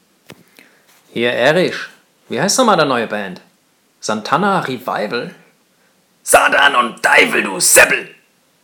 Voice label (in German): Hessisch